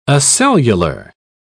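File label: "acellular", British English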